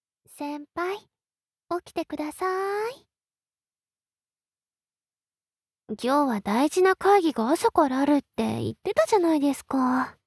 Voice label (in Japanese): ピロートーク, ASMR; SEあり